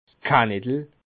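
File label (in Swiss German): "kanettel", Bas Rhin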